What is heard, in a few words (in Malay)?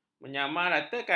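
"menyamaratakan", Malay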